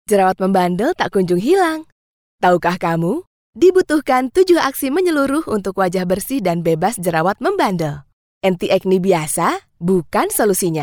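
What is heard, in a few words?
Indonesian, female, commercial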